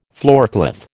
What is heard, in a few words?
"floorcloth", American